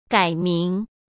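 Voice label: "改名", Mandarin Chinese; chinese-flashcards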